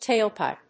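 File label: "tailpipe", English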